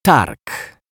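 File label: "targ", Polish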